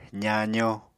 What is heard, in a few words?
"zaazo", Apatani